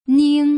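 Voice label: "ning", Mandarin Chinese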